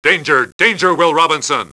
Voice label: danger, robot; danger will robinson; robot b9

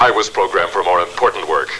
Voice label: More Important Work, robot; robot b9

